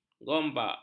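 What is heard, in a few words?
"gombak", Malay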